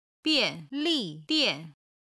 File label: "bian4 li4 dian4", chinese